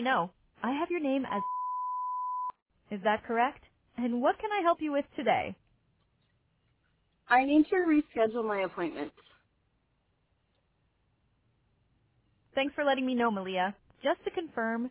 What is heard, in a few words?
voice ai call